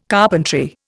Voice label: "carpentry", Indian